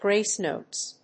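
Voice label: "Grace Notes", English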